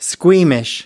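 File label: "squeamish", American English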